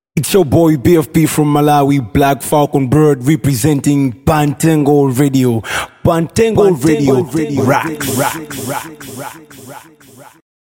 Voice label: radio; rocks